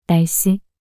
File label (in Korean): "날씨" 단어 여자